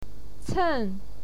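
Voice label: "cen", Mandarin Chinese, fourth tone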